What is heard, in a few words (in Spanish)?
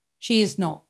Voice Acting es F0